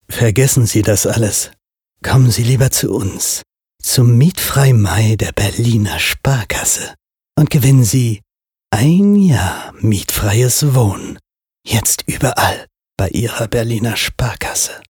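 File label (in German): werbung, deutsch männer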